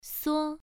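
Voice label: "suo" Mandarin Chinese, first tone, pronunciation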